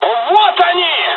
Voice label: dialog, dialogs russian; us midway; War Thunder